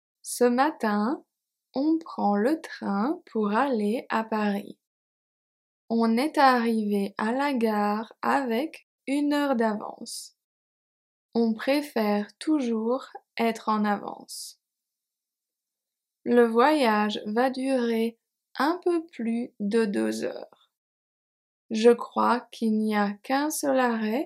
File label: French Speaking Practice; On prend le train pour aller a Paris